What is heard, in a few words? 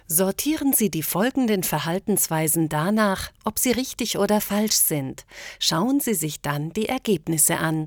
e learning; siemens; Muttersprache Deutsch